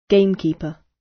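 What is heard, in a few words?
"gamekeeper" British; Flora and fauna, agriculture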